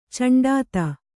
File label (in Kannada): "ಚಂಡಾತ", kannada